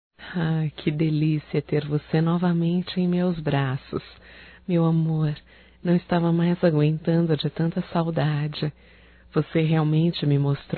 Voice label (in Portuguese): SEJA BEM VINDO, fem; Despedidas e boas vindas; Telemensagens 2017